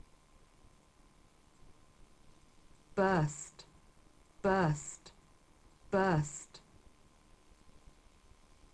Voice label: "burst", verbs